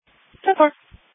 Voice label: Police Dispatch